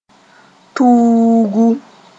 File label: "tuugu", moore